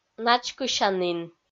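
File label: "начкысянін", Komi-Zyrian, female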